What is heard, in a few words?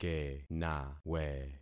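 "kenawe", concatenated syllables; Affixing experiment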